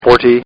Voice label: Man Says, Talking; Talking Sound Effects

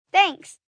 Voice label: Thanks, Etiquette, 1 child, 10 yrs; VoiceBox

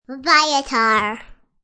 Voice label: Toddler Says Buy a Car, Toddler talks; Talking; Voice

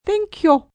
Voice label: Thank You Brit, female; Etiquette; VoiceBox

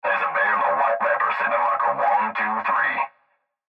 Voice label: Cb Trucker Radio; Cb Radio Male Theres A Bear In A White Wrapper Sitting At Marker 123; Radio Voice; Blastwave

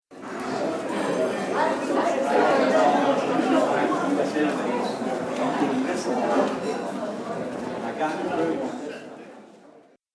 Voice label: Conversation In Restaurant Short Ambience; Restaurant Sound Effects

